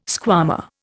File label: "squama", Indian